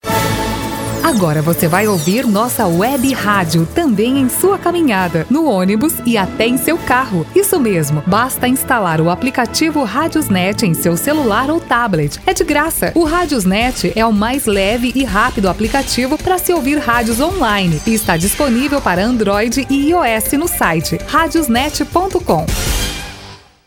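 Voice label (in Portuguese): Agora voce vai ouvir nossa webradio, feminino; RadiosNet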